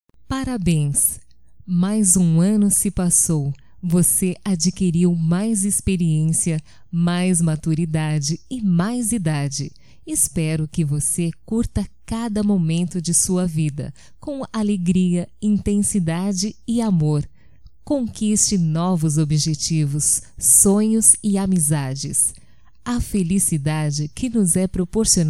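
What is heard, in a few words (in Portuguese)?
ANIVERSÁRIO NEUTRO, female, Telemensagens, Só Voz; Telemensagens 2017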